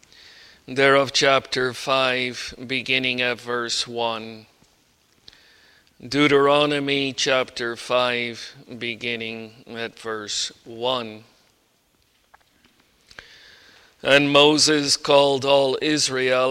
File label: Bible Reading